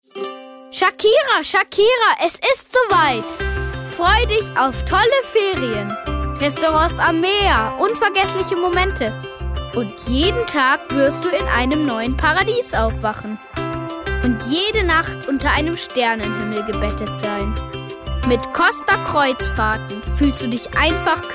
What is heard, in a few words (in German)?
Freundlich; Fremdtake